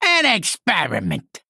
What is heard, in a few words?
Male Goblin Attack